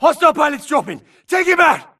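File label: grunt detect Player Low Health; imc; Titanfall 2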